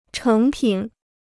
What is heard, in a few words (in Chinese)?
"成品", Chinese